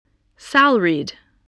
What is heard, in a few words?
"salaried", English spelling